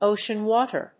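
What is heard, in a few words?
"ocean water", English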